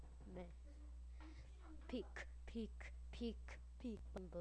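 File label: "pick", spoken word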